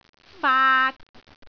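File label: "faat3", Cantonese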